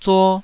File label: "zuo2", Taiwanese